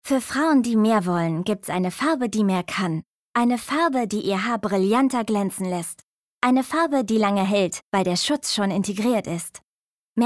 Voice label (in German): Werbung Haarcoloration